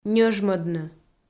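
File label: "ньӧжмӧдны", Komi, female; audio dict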